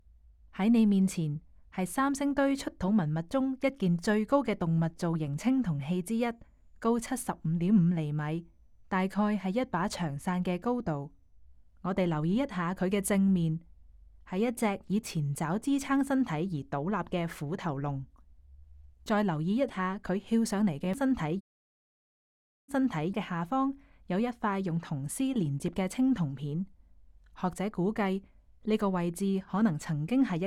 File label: Cantonese, audio guide